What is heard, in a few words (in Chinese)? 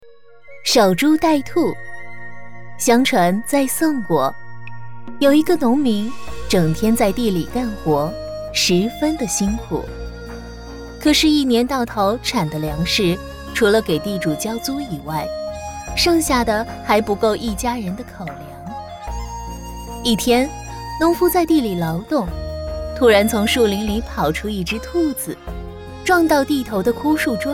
女 儿童故事; 守株待兔